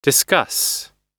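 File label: "discuss", American English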